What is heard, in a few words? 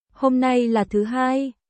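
Vietnamese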